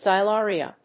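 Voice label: "xylaria", English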